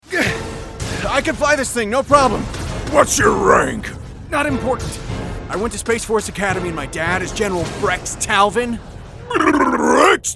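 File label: Animation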